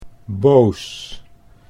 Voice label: "boos", Dutch